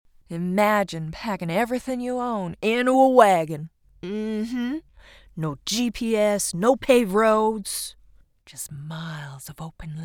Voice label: eLearning K12 Grades 6 to 9 GOLD RUSH Module; eLearning Sample

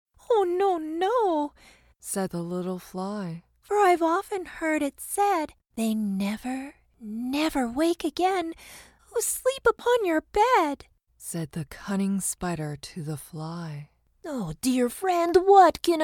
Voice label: CHILDRENS MEDIA Sample Audiobook, Boy Voice; The SPider and The FLy; kids content boy voice

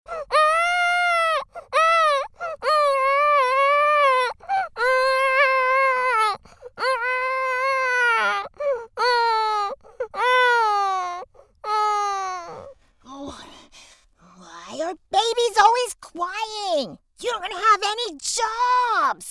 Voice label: Boy Voice Angry Cartoon

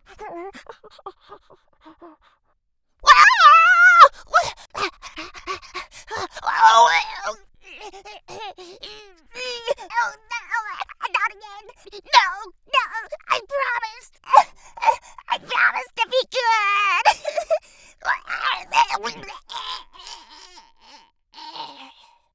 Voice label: Creature GOBLIN, creatures vocal extremes; Creature Demo

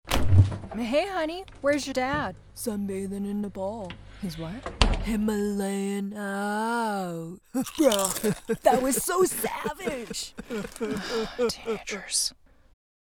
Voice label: Boy Voice Teenager; Todd

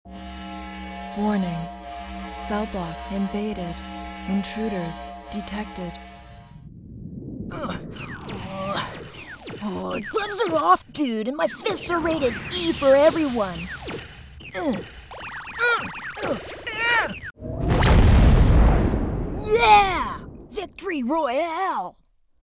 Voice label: Boy Voice Playing Videogame

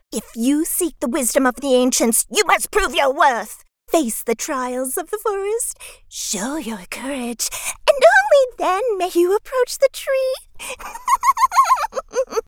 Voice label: Creature FAIRY; Creature Demo; creatures vocal extremes

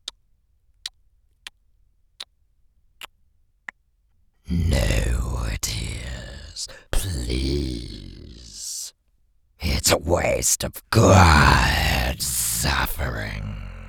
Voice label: Creature DEMON, creatures vocal extremes

